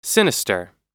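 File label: "sinister", American English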